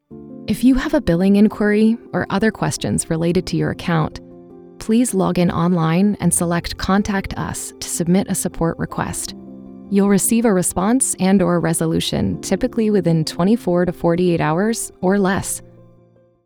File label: english american ivr